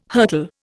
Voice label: "hurtle", Indian